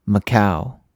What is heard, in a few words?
Macau pronunciation